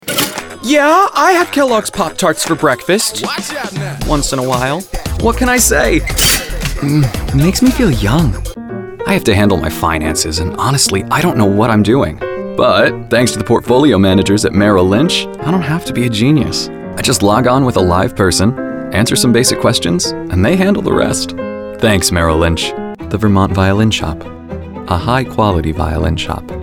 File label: Commercial